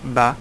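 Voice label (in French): "bas" French; ipa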